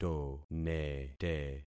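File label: "tonete", concatenated syllables; Affixing experiment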